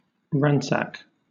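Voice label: "ransack", British English; pronunciations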